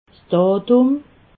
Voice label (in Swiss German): "datum", alsace